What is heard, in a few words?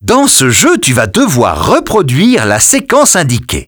French dialogue, welcome; Birthday Party Bash; Wii; copycat welcome vo